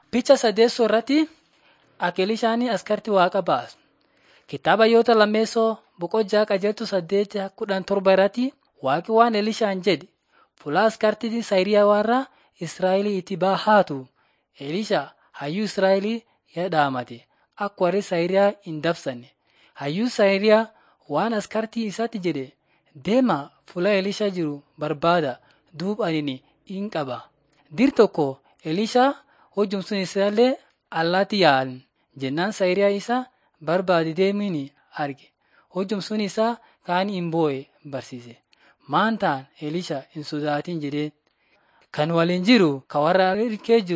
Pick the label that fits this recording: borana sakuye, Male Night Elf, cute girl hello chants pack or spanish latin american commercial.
borana sakuye